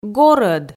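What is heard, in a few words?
"горӧд", Komi, female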